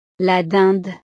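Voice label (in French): "la dinde", French; navidad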